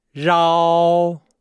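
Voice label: "rao", Chinese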